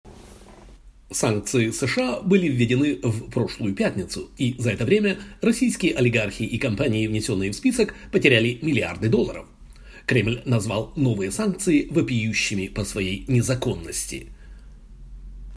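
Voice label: Russian, General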